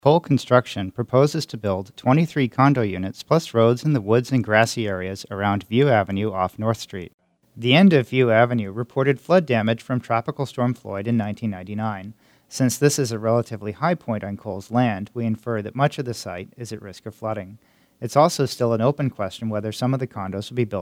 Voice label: spot vox